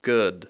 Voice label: "good", English

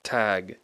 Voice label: "tag", English

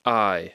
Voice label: "eye", English; Speech Pro

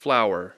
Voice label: "flower", English

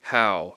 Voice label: "how", English

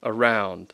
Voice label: "around", English

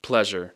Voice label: "pleasure", English

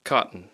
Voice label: "cotton", English

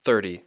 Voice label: "thirty", English

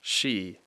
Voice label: "she", English

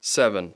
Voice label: "seven", English

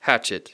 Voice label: "hatchet", English

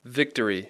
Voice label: "victory", English; Speech Pro

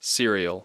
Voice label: "cereal", English, C soft

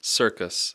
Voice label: "circus", English

